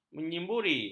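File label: "menyimburi", Malay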